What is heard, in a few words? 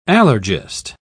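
"allergist", British English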